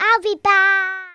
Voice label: nooo, Speech Kidz; Worms Armageddon